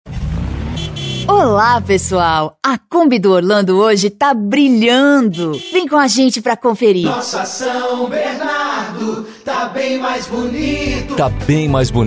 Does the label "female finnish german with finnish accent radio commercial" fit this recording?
No